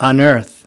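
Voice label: "unearth", American English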